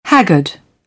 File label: "haggard", British English